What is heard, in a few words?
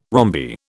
"rhombi", British